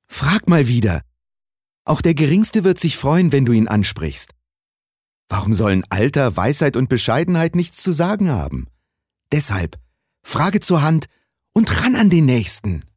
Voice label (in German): Werbung Kindlich